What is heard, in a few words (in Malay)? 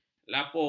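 "lapor", Malay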